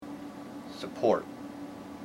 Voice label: IPA vowel support